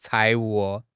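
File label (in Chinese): "财务", Chinese; HSK6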